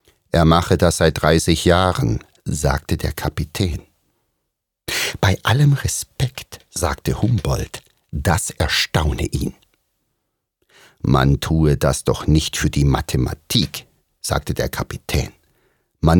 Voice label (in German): Hoerspiel